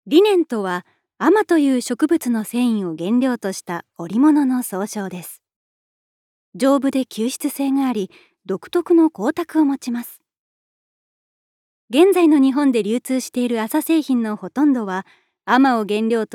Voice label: ストナレ

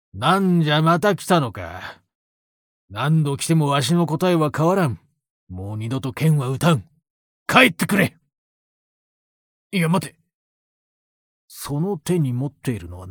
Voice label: テンプレな頑固爺

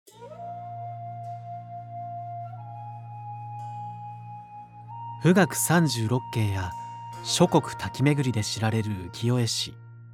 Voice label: 落ち着き

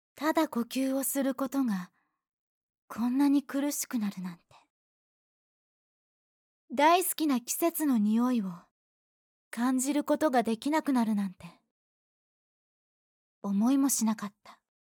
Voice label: NAサンプル

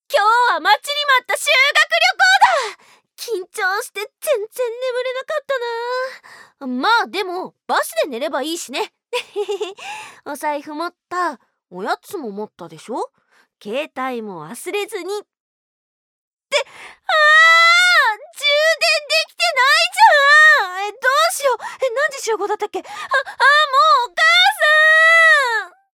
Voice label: 元気な女の子

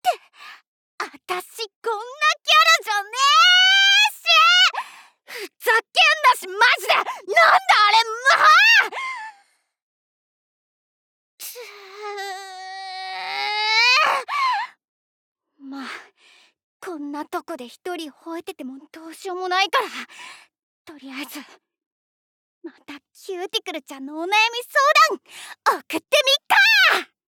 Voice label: 女性

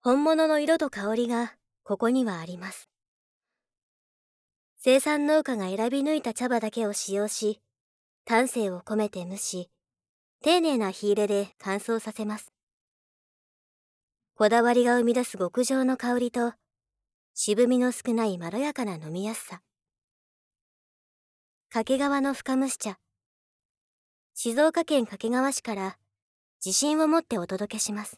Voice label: ナレーション

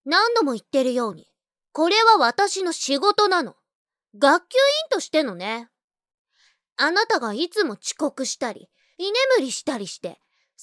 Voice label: 学級委員の女の子

